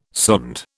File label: "sonde", British